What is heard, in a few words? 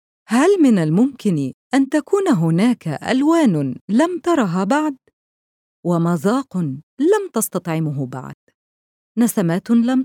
Ads